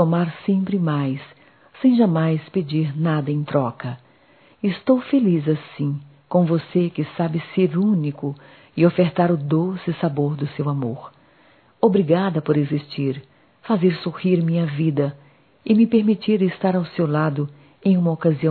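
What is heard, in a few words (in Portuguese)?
Páscoa Romântica, female, Telemensagens, Só Voz; Telemensagens 2017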